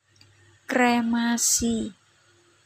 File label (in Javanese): "Kremasi", Basa Jawa; Contoh pengucapan